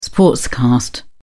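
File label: "sportscast", British English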